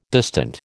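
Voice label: "distant", American English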